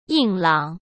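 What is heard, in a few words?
"硬朗", Mandarin Chinese; hsk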